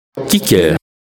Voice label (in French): kiker prononciation